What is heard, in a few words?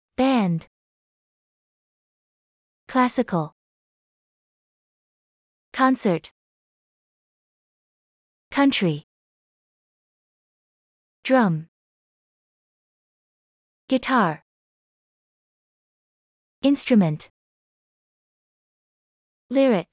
USA